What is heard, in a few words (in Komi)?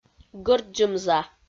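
"гӧрддзӧм за", Komi, female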